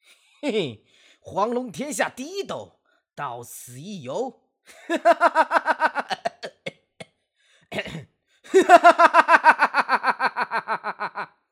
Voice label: 大侠音

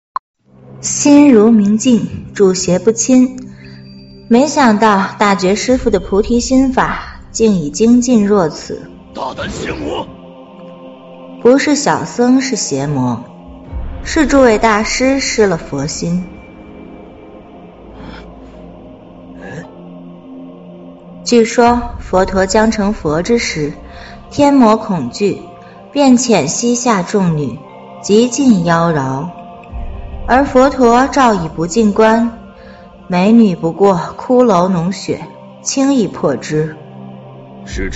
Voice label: 女版; 女版少年歌行

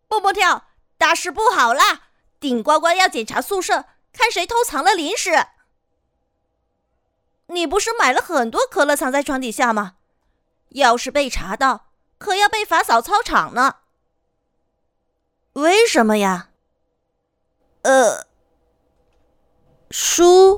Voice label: 儿童多播; 神兽发电站

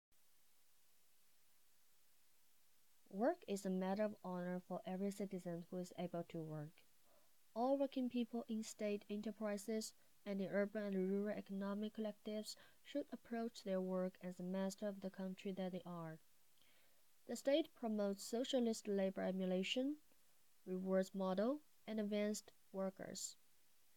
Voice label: 法律文本朗读

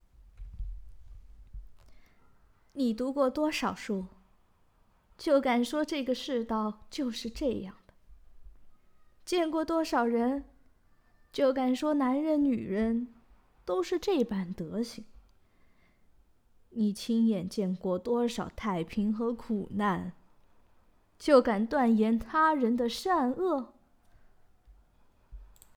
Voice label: 老年音

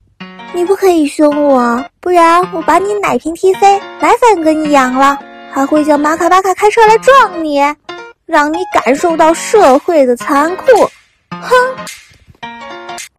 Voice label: 孩童音

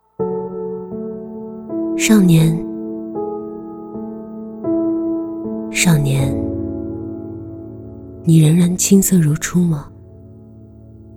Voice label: 走心独白情感电台磁性低沉忧郁疗愈

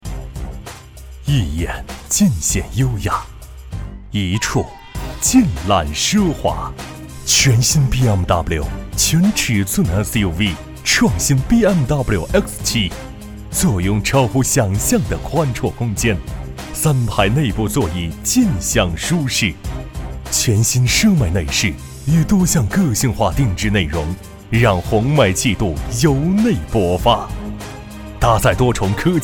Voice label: 大气品质广告

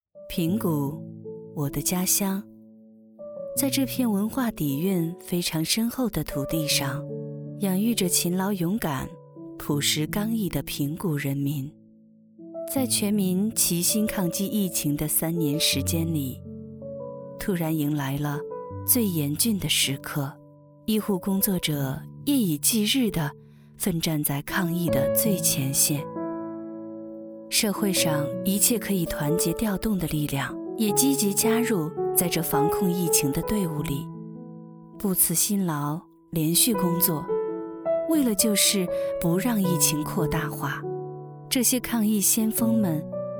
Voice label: 纪录片

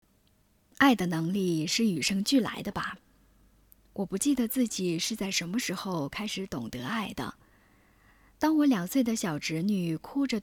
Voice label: 女播; 幸福起航

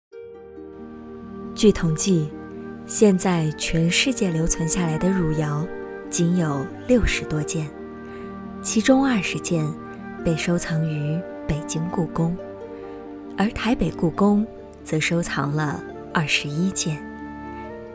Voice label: 纪录片配音 缩混

